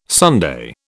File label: "Sunday", British